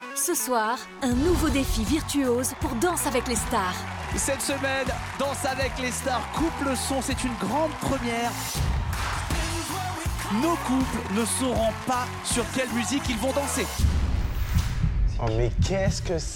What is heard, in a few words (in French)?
Voix Off; TF1; DALS; Danse avec les stars